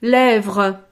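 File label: "levre", French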